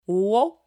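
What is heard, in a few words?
"wo", French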